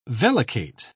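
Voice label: "vellicate", British English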